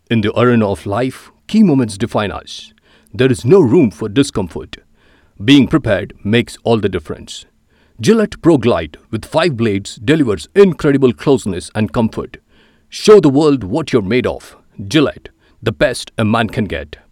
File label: English Ad